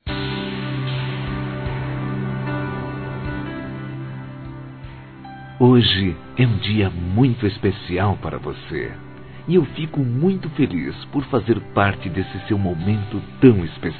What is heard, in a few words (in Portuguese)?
Romantica Aniversário Namorada Masculino, Telemensagens